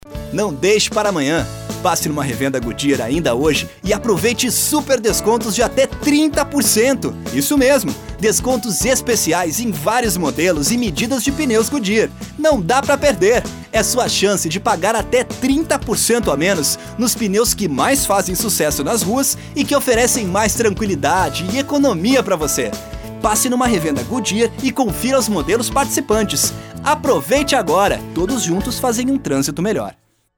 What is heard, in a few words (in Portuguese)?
CONVERSADO; Goodyear